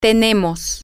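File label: we Are With, Spanish, female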